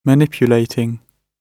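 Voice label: "manipulating", British English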